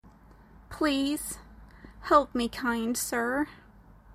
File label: please help me kind sir female